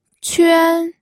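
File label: "quan", Mandarin Chinese, first tone; pinyin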